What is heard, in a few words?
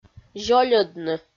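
"зёльӧдны", Komi, female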